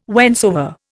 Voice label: "whensoever", Indian